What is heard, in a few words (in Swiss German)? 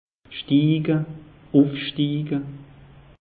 "stiege", alsace; meteo